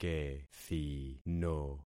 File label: "kethino", concat syllables; Affixing experiment